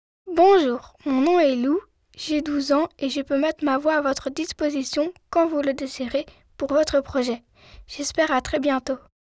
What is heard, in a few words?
FR ENFANT